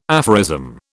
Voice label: "aphorism", British